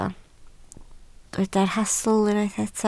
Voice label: "rhastl", Welsh

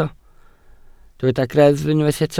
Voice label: "greddf", Welsh

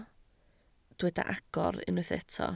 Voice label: "agor", Welsh

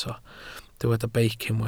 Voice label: "beic", Welsh

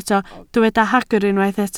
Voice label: "hagr", Welsh

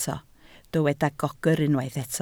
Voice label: "gogr", Welsh

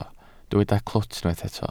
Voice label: "clwt", Welsh

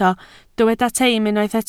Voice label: "teim", Welsh

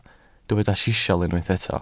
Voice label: "sisial", welsh

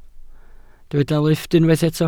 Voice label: "lifft", Welsh

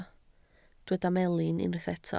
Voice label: "melin", Welsh

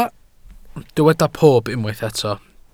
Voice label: "pob", Welsh

